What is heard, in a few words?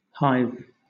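"hive", British English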